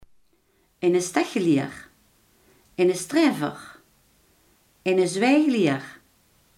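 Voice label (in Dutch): iemand die graag discussieert, Limburgse gesproken woordenboeken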